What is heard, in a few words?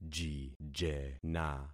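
"jijena", concatenated syllables; Affixing experiment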